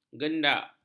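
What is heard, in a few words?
"gendak", Malay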